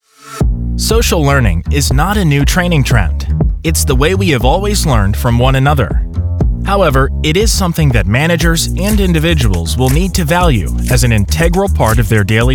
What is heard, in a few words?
eLearning, voiceover